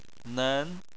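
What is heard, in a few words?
"nen" second tone, Chinese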